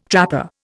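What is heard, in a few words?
"trapper", Indian English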